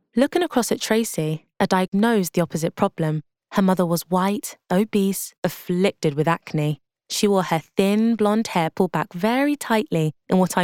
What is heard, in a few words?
Audiobook English